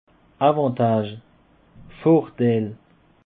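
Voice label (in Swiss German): "avantach", Alsatian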